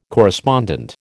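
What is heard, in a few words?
"correspondent", American English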